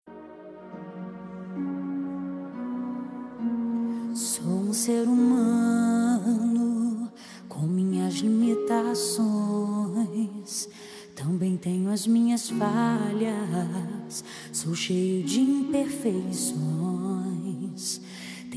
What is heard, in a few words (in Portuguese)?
ANIV FILHO, telemensagem aniversario filha filho